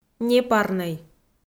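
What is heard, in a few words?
"непарнӧй", Komi, female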